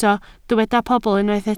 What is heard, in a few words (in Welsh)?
"pobl", Welsh